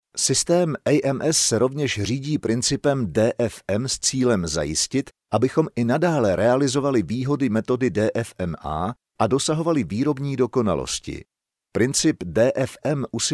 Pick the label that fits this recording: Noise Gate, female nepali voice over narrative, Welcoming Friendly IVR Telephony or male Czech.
male Czech